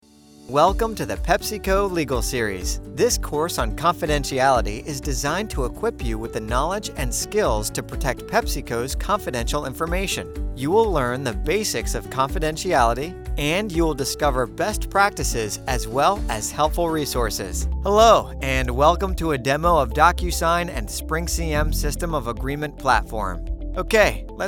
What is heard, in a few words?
Narration